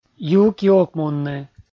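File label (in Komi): "ювк-ёвкмунны", Komi, male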